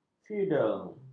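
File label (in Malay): "feudal", Malay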